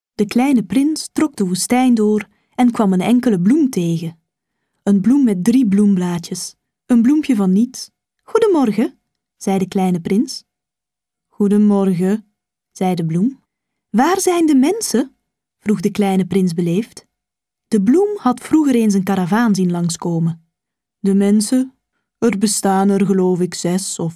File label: Flemish